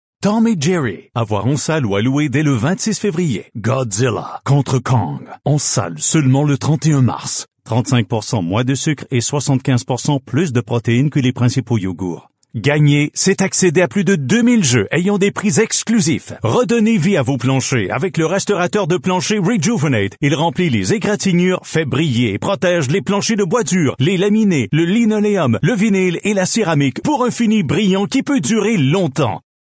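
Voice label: Canadian French Commercial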